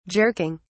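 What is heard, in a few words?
"jerking", British English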